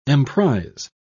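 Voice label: "emprise", British English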